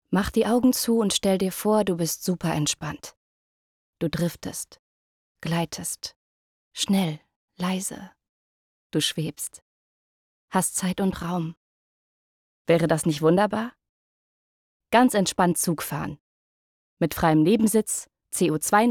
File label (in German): Werbung; Railways